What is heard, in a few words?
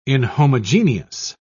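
"inhomogeneous", British English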